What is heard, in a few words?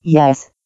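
"yes", English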